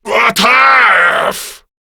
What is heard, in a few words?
Attack, Male; Warframe; Grineer Male B; Battle Barks